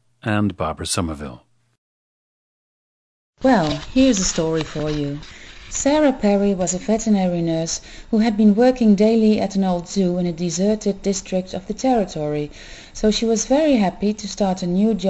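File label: netherlands, International Dialects of English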